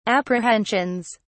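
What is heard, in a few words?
"apprehensions", British English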